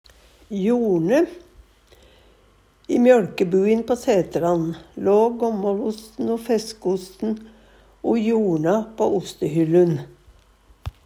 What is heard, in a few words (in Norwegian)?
jorne, Numedalsmål